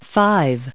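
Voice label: "five", US English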